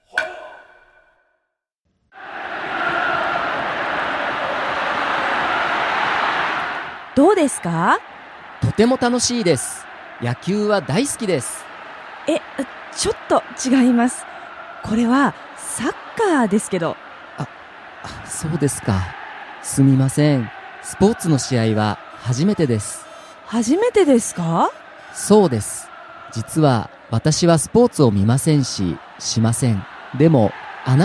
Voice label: dialog; JapanesePod101; Beginner Season 1